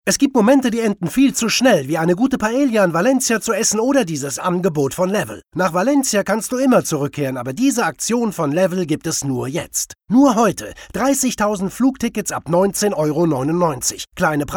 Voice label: male german offer comercial; ideasonora

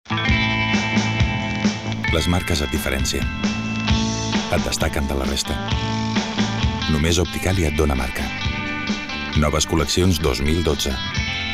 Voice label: male catalan glasses comercial; ideasonora

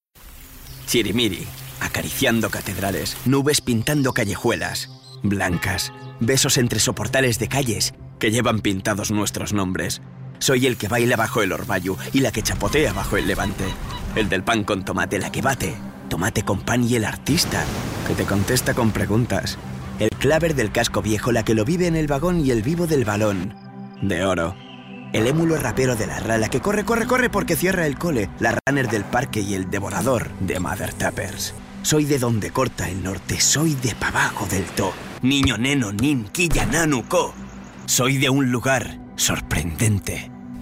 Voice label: male spanish car comercial

